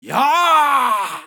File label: japanese Military; age2